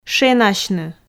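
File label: "шенасьны", Komi, female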